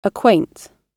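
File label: "acquaint", British English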